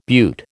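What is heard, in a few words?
"butte", American English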